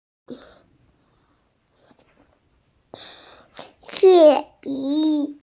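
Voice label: "qiān bǐ", Mandarin Chinese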